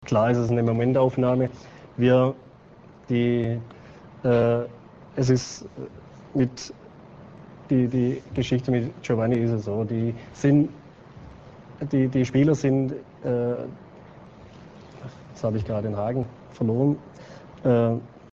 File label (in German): fussball kommentar, radiopannen